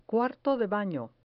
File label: "cuarto de bano", Spanish